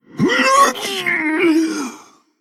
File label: Male Undead Death